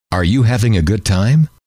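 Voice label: are you having a good time, male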